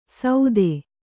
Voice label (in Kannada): "ಸೌದೆ", Kannada